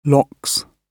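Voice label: "locks", British English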